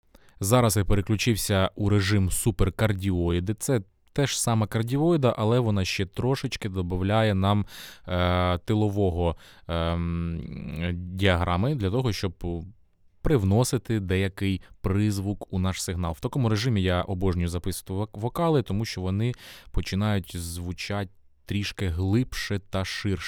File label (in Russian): lewitt lct 640 ts giper kardioida; Lewitt LCT 640 TS – це конденсаторний, широкомембранний мікрофон за 1000 $; Гіпер кардіоіда